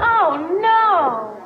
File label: "oh no" girl; ooh ahh